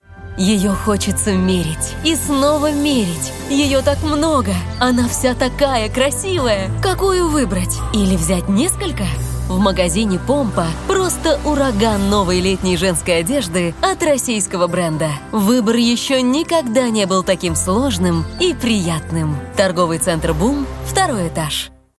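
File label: Rolik, advert; POMPA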